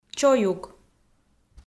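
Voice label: "чоюк", Komi, female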